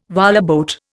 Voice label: "whaleboat", Indian